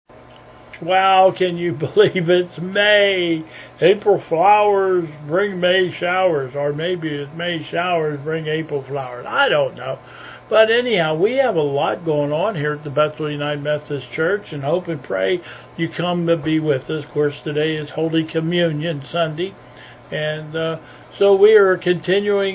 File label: Welcome and Announcements